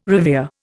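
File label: "revere", Indian English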